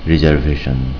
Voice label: "Reservation", Bengali